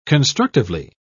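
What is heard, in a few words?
"constructively", English, British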